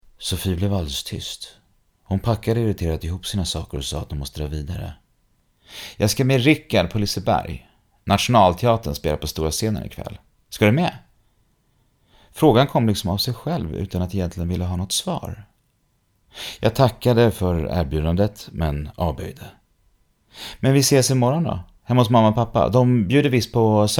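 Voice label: book reading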